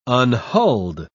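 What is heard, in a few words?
"unhulled", British English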